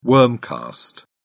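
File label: "worm cast", British English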